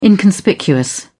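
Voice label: "inconspicuous", British English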